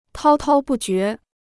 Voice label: "滔滔不绝", Chinese

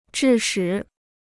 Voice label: "致使", Chinese

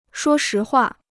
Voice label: "说实话", Chinese

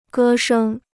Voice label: "歌声", Chinese

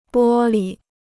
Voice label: "玻璃", Chinese

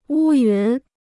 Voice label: "乌云", Chinese

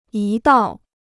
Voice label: "一道", Chinese

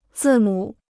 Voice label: "字母", Chinese

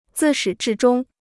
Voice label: "自始至终", Chinese

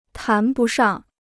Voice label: "谈不上", Chinese

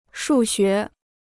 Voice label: "数学", Chinese

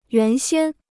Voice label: "原先", Chinese

